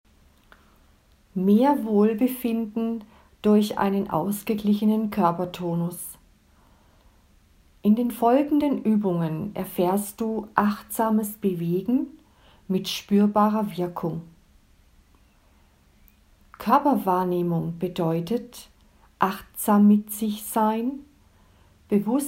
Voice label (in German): Einfuehrung lauter